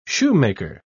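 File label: "shoemaker", British English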